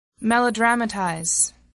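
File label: "melodramatize", English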